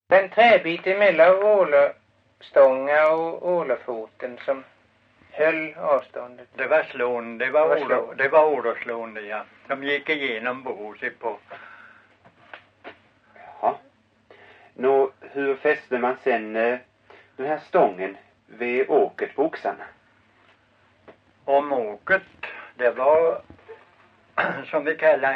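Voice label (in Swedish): Långlöt Småland, dialekter; Folkminnen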